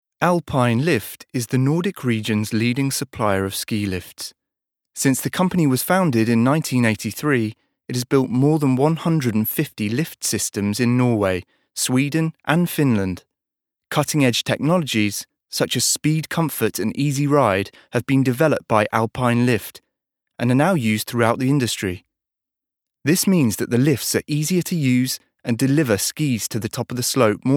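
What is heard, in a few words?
British corporate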